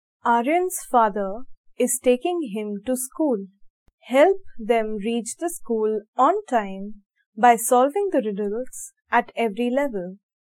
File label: dialog; Elevate LMS; EVS; SolveThis